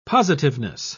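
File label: "positiveness", British English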